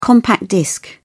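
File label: "compact disc", British English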